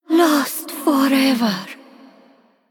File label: Female Draenei Play